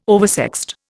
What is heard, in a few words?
"oversexed", Indian